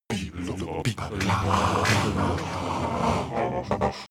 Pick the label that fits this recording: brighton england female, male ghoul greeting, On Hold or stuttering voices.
stuttering voices